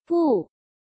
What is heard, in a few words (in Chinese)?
不, dog commands, Chinese